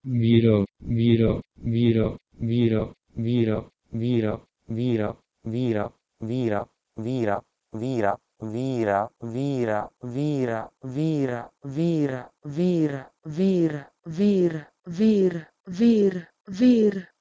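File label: Proto-Indo-European wih1ro to Hindi vir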